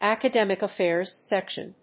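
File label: "academic affairs section", English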